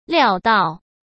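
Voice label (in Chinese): "料到", Mandarin Chinese; chinese-flashcards; hsk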